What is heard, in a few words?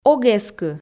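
"ог эскы", Komi, female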